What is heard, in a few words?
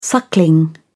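"suckling", British English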